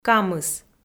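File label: "камыс" Komi, female; kpv audio dict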